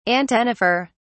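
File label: "antennifer", British English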